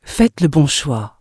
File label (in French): faites le bon choix, bloodelf female; PhoenixCraft-1.16.5; PhoenixNPCPack; minecraft